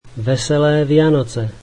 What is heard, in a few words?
Merry Christmas, slovak